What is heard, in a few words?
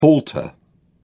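"halter", British English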